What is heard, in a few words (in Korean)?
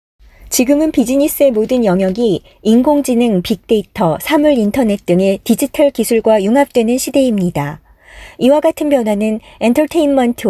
Korean Voice; Female